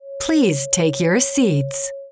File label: EN W